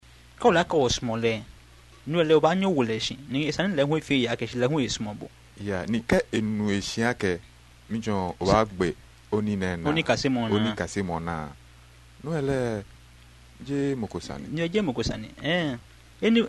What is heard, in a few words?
conversation, Ga